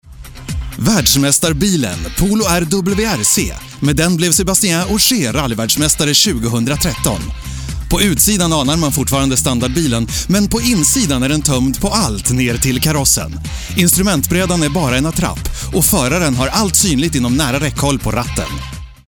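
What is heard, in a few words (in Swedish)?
speaker search swe werbung, Swedish, advert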